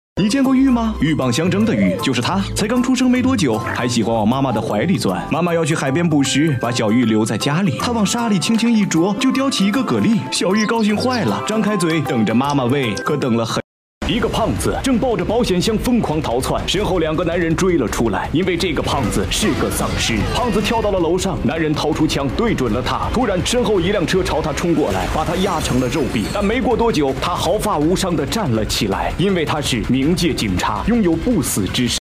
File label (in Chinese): 男声 短视频 电影解说